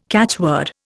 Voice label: "catchword", Indian

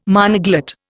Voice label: "monoglot", Indian

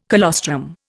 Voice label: "colostrum", Indian

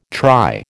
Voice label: "try", American

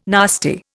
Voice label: "nasty", Indian

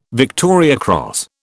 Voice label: "Victoria Cross", British

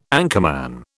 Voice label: "anchorman", British

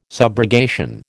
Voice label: "subrogation", American

